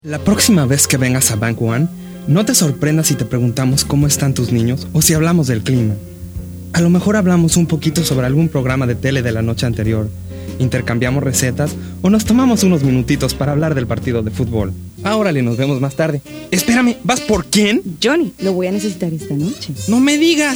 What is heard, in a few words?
Spanish, males